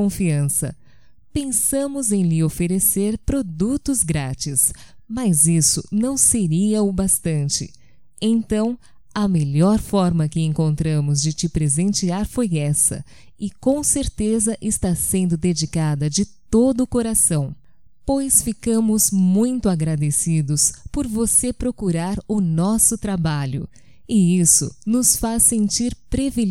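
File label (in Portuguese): Aniversário cliente, female, Telemensagens, Só Voz; Telemensagens 2017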